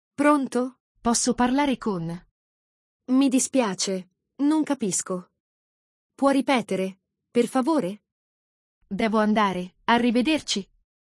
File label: talking on phone